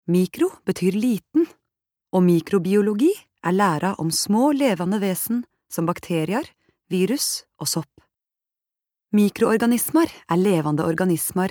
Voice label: no nynorsk